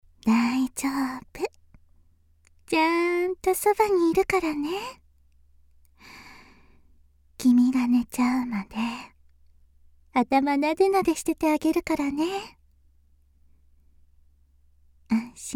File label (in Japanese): sasayaki